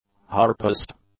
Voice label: "harpist", English